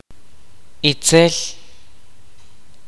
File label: kaqchikel; the evil